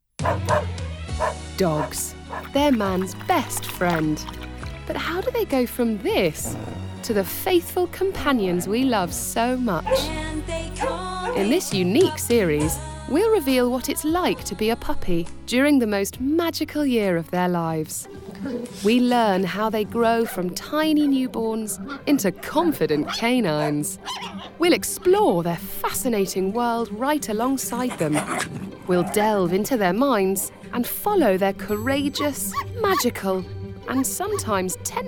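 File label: narration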